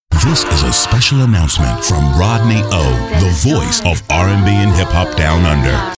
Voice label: Announcement

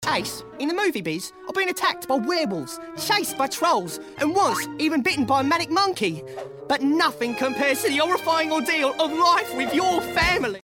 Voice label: Character

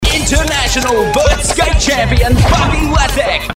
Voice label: Skateboard Promo; Nickelodeon

